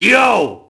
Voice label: mobster alert; enemy; Max Payne

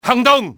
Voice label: execute, enemy cantonese male; XBOX

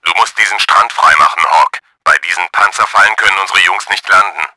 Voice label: CLEAR BEACH, german; Battle Engine Aquila

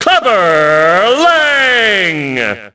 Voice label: announce, ENGLISH; Rocky; XBOX

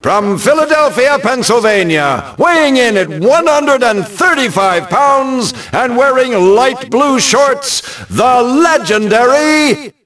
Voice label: announce, ENGLISH; Rocky; XBOX

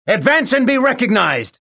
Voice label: suspicious; bespincop

